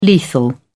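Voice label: "lethal", British English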